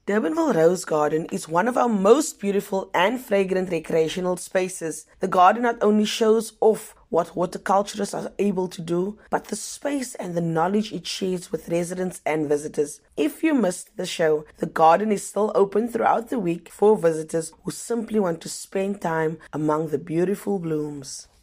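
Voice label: English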